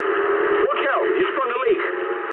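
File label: damaged water mood medium, speech English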